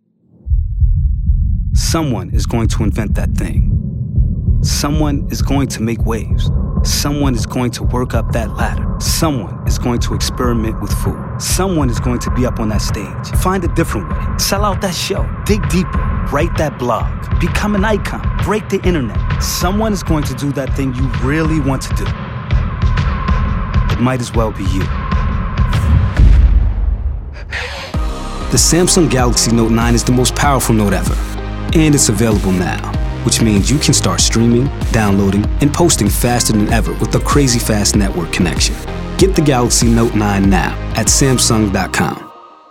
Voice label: Commercial